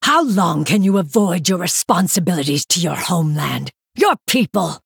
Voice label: Female Night Elf Idle